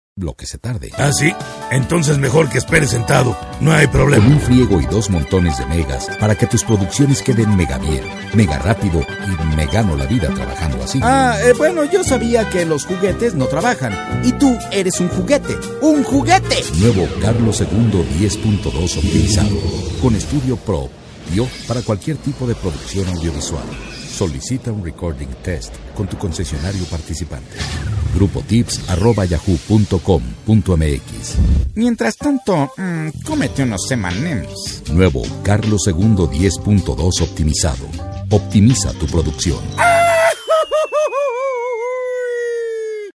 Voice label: latam mexican m commercial; voice demos